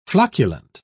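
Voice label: "flocculent", British English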